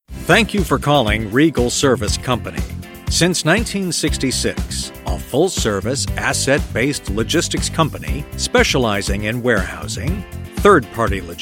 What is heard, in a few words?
Phone IVR